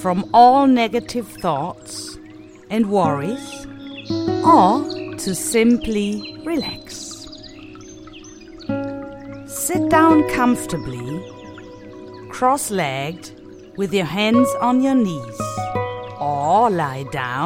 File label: Kids Meditation